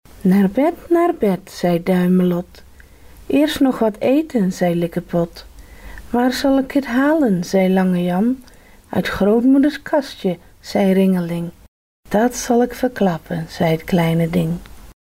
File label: naar bed finger naming rhyme